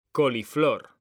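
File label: "COLIFLOR", Spanish; mekanta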